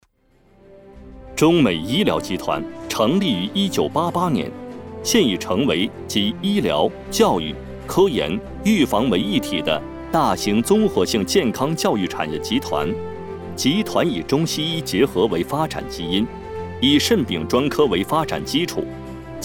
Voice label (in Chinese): 【专题】企业介绍, 男国语, 磁性, 明亮